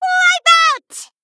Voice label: Female Gnome Attack